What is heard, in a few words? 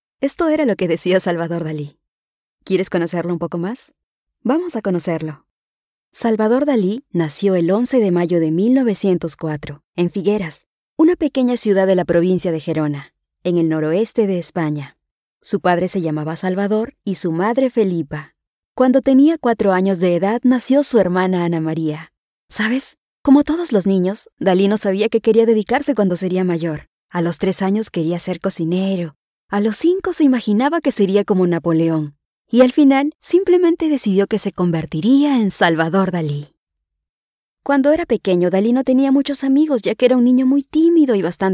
Spanish Latin America narration